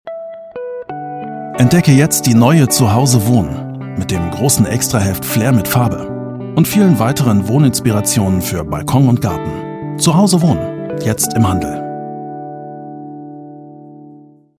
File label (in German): Werbung Zeitschrift